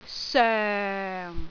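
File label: "soeng4", Cantonese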